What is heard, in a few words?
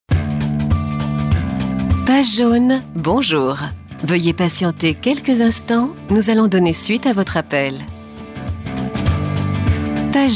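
French European IVR; IVR/Phone systems; Female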